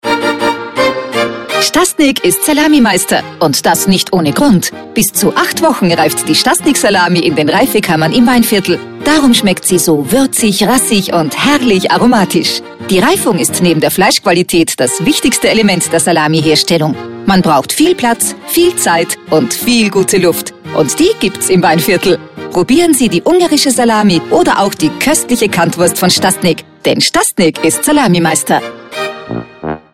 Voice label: Spot; Radiomax

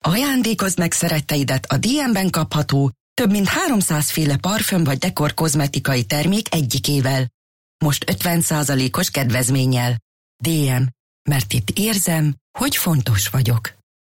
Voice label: Werbung Ungarisch

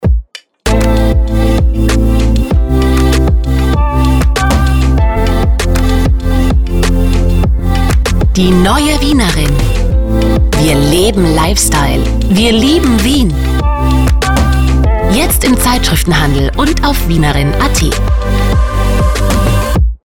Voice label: Spot Wienerin Image

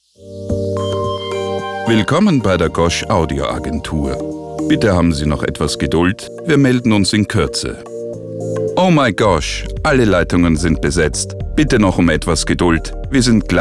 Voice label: Telefonansage

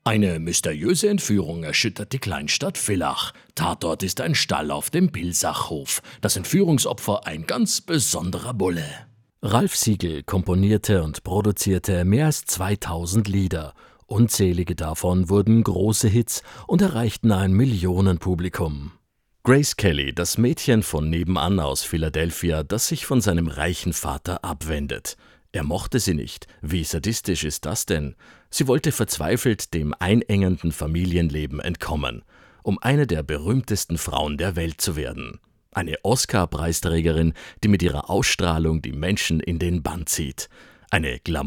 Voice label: TV Trocken

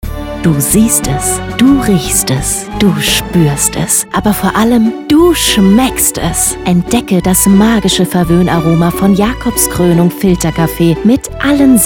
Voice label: Werbung; jaboskoernung promo

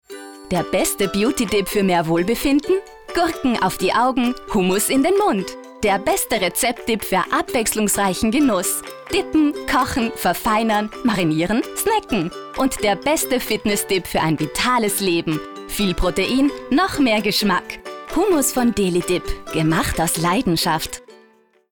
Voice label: Werbung

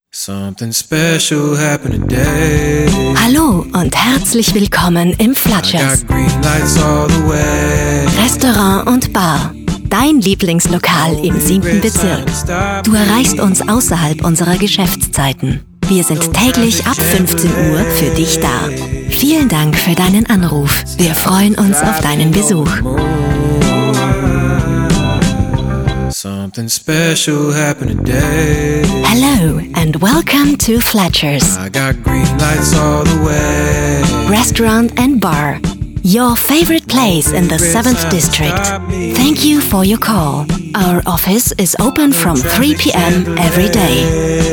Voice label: Telefonanlage; sprecher; Flatschers

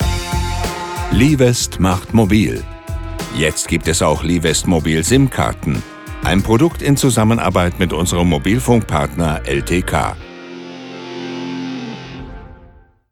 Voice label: Claim Commercial mit Musik

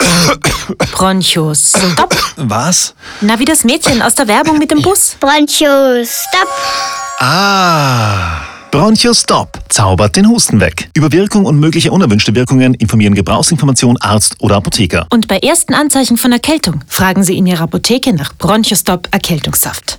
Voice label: Radiospot; Bronchostop

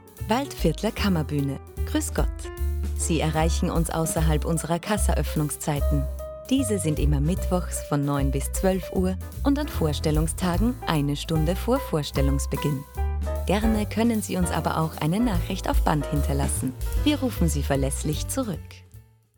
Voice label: Telefonansage; Kammerbuehne

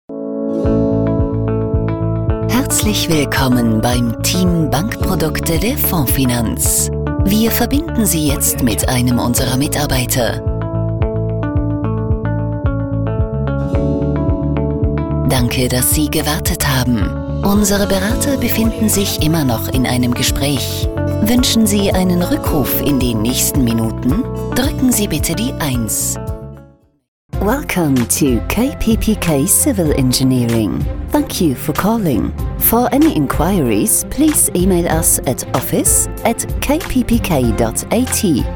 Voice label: Anrufbeantworter Mix

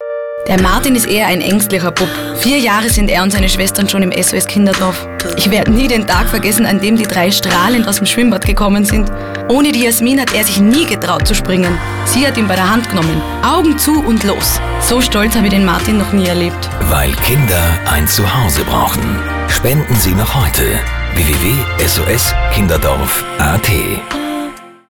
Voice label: Dialekt; SOS Kinderdorf

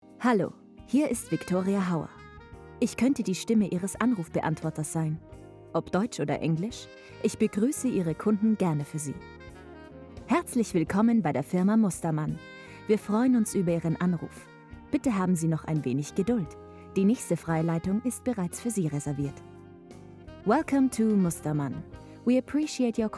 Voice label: telefon

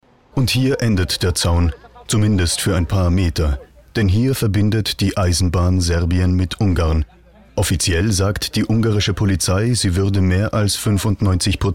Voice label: doku

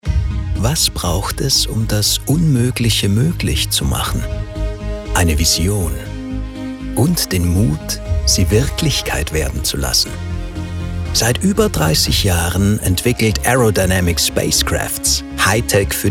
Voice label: Imagefilm; Spacecrafts

